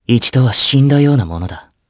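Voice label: voice dia, voices heroes, Japanese; Theo; kingsraid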